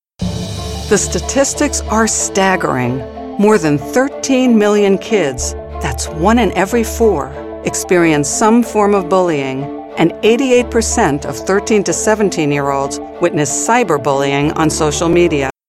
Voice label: english american